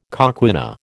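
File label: "coquina", American English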